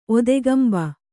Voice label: "ಒತ್ತುಗಂಬ", kannada